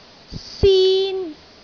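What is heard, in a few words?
"sin1", Cantonese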